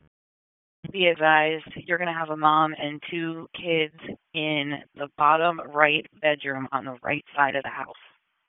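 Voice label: Police Northeast District Dispatch; Raleigh; p25; wake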